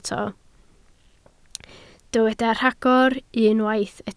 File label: "rhagor", Welsh